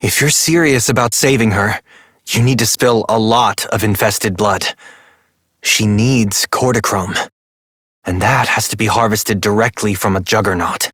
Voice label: Operator Voice; JadeShadowsQuest; Mission Three

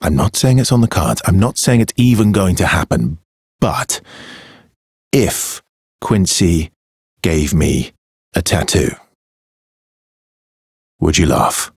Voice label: Ambient Barks, en; Warframe; Mall Romance; Arthur

